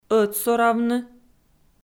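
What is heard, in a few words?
"ӧтсоравны" Komi, female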